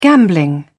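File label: "gambling", English, British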